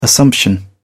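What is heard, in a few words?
"assumption", British English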